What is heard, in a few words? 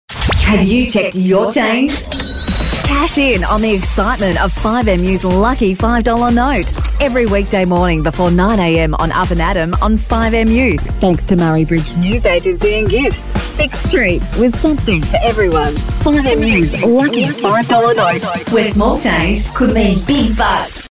Radio Imaging; 5MU Lucky 5 Dollar Note